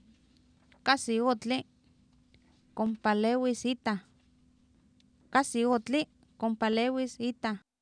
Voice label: kAsi Otli kompaleewiis iitah, Oapan minimal pairs female; Oapan minimal pairs 1998